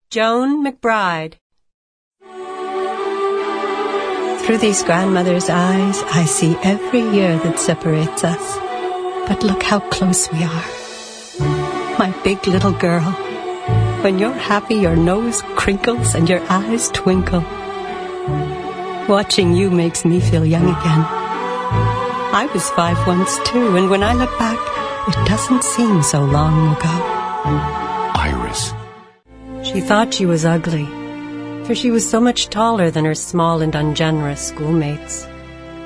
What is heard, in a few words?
Voice Demo, women